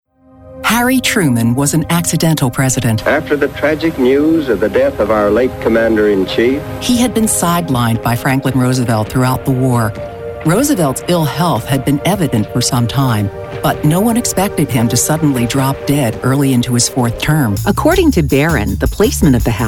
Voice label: women narration

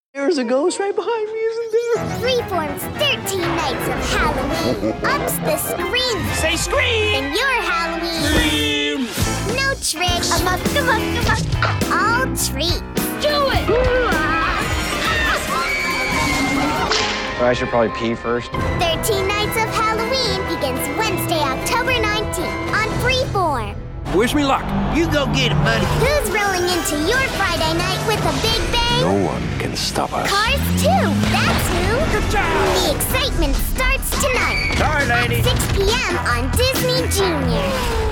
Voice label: kids promos

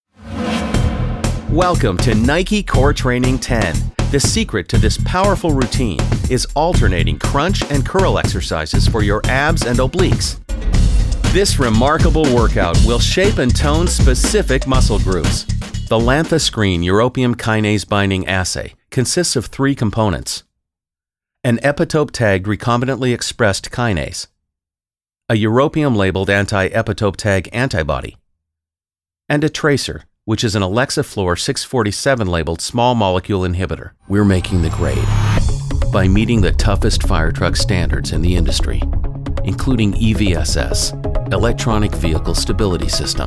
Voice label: men narration

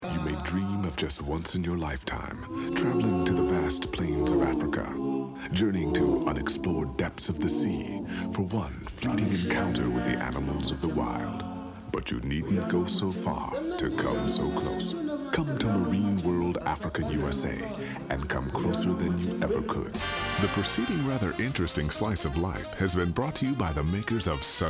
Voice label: african american commercial

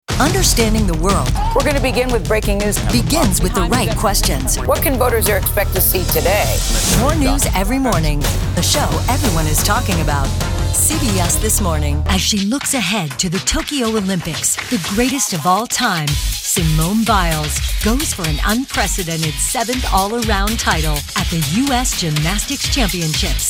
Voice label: women promos

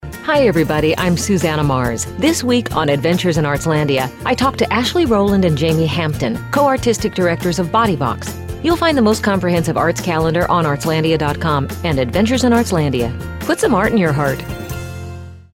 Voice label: women promos

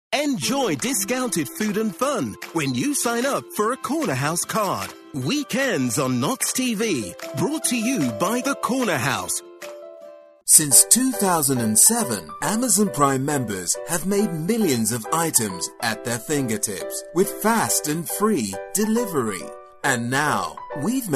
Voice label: british commercial

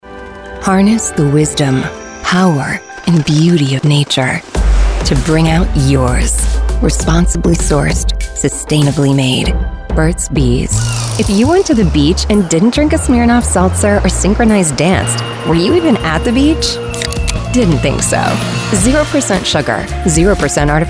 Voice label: women commercial

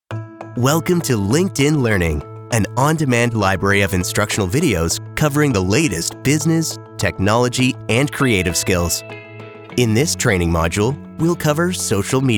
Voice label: men elearning

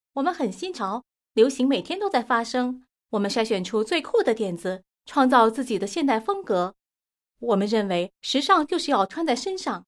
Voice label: chinese mandarin commercial

